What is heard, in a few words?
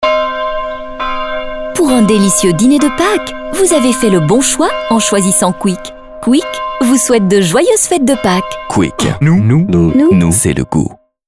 quick instore paques fr